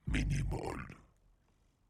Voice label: "minimal", demonic techno voice

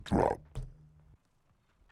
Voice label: demonic techno voice drop

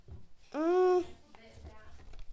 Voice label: child talk mmm

